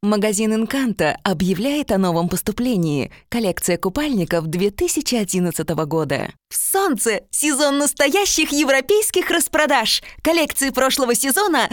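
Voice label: Russian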